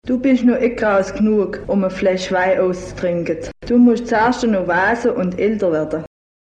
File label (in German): pfullin, Dialekte